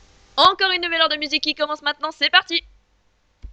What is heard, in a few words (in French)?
encore une nouvelle heure de musique, jingle